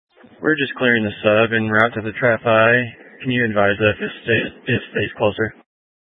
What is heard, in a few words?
Sheriff Dispatch; Mesa County